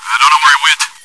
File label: chitchat, bot, voice commands; svencoop